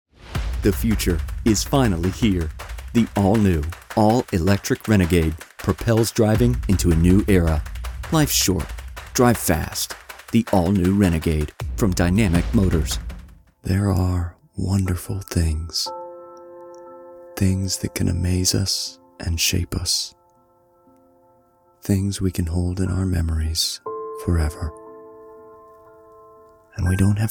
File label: commercial